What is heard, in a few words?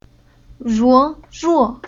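"Ruo", Chinese syllable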